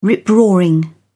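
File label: "rip-roaring", British English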